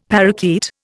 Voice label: "parakeet", Indian; Dictionary